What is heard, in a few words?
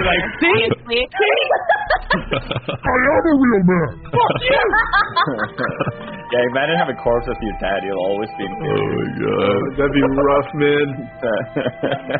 morning voice